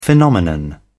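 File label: "phenomenon", British English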